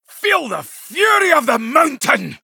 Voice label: threaten